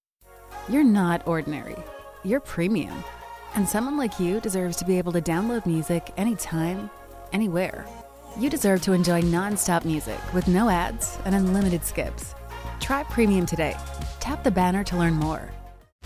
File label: women radio imaging; The Modern Mom. Friendly, playful and creative.